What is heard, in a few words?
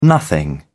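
"nothing", British English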